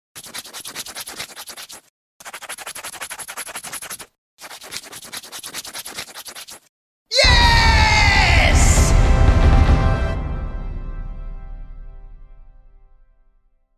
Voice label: Scratch Card Winner, Scratching off a scratch-card revealing a win with male voice shouting; Scratching off a scratch-card revealing a win with male voice shouting ‘Yes’ with jubilant fanfare.; Yelling